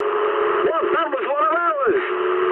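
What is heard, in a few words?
English player killed ally mood med; speech; War Thunder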